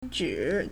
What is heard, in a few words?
"zhi3", Chinese